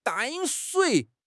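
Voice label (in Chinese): "淡水", Chinese; HSK6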